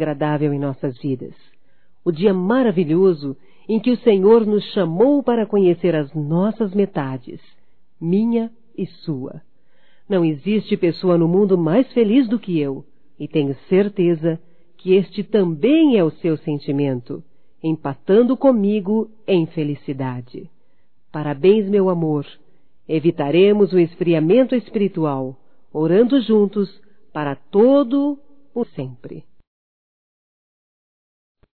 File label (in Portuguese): Aniversário Casamento Feminino; Aniversarios; Telemensagens; Telemensagens 2017